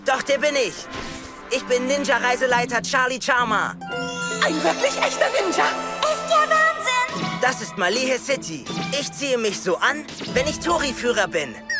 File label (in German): Synchron, maenner; Pokemon sun&moon